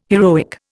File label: "heroic", Indian